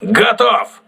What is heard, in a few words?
voice message enemy destroyed, crew ships russian